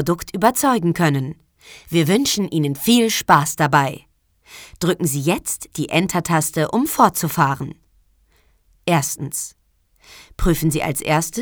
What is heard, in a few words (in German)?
e learning; sprecher